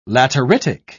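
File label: "lateritic", British English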